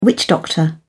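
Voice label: "witch doctor", English, British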